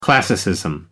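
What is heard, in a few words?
"classicism", English, uk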